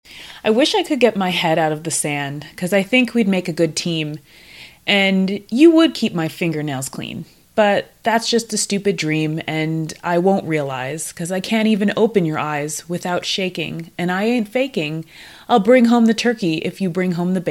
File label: ATR 2100 USB desk distance, microphones